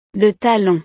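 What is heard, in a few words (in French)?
"le talon", French; El talón; partes del cuerpo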